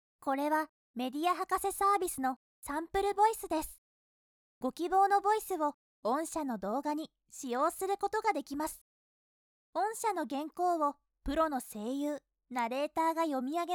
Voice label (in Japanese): kawaii, narration sample voice women